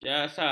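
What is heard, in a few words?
"jasa", Malay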